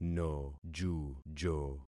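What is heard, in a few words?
"nojujo", concatenated syllables; Affixing experiment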